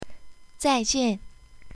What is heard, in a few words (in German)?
Auf Wiedersehen, Chinesisch; sprachkurs